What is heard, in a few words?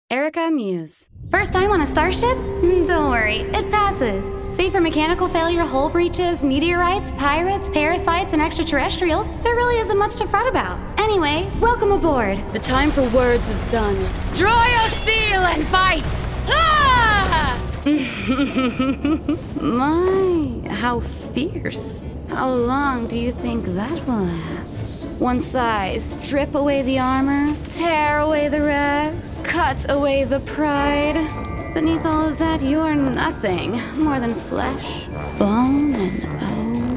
character